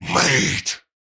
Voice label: Cannibal Male Taunt; Trunk Act One Monster

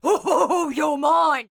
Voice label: Ranged Female Alert Line, Thug Spotted

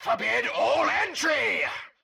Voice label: Scientist Caster Chokepoint Line; NPC; Heist Cultist Chokepoint

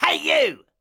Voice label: Pirate Elite Male Alert Line; NPC; Heist Thug Spotted

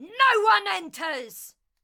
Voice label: Ranged Female Chokepoint Line, dialogue; NPC; Heist Thug Chokepoint

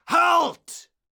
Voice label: Caster Elite Alert Line, dialogue; NPC; Heist Military Spotted

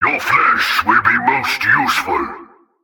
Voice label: Male Alert Line; NPC; Heist Cultist Spotted

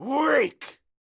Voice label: Melee Miniboss Mid Combat Line; NPC; Heist Military Mid Combat

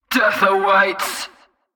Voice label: Female Alert Line, Cultist Spotted; NPC; Heist

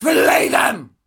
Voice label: Female Taunt, Cannibal, dialogue

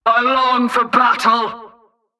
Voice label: Female Patrol Line

